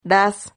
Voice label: "raz", Silabas

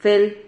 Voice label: "fel", Silabas

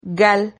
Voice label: "gal", Silabas

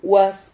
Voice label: "huas", Silabas

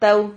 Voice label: "tau", Silabas

